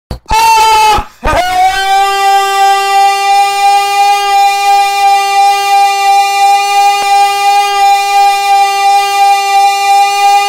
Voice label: scout battle cry

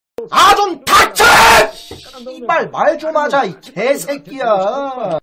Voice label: scout jeers

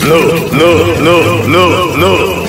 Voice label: heavy no

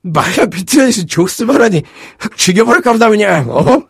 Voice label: negative vocalization

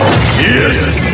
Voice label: heavy yes

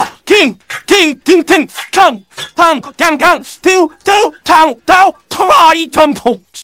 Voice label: scout battle cry

